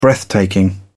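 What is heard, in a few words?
"breathtaking", British English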